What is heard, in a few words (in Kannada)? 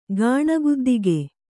"ಗಾಣಗುದ್ದಿಗೆ", Kannada